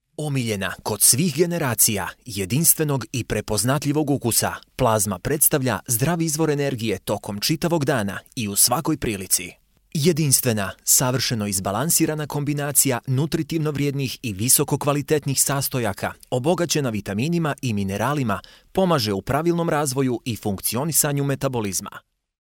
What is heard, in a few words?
Commercial, bosnian